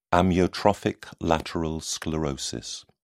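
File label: "amyotrophic lateral sclerosis", British English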